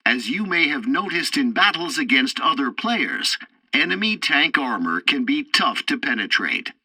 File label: tutorial tank arcade second tutorial start, english; War Thunder